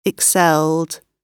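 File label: "excelled", British English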